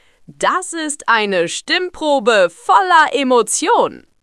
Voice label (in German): Radio, stimmen; moods